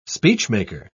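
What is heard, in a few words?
"speechmaker", English, British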